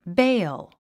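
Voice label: "bail", English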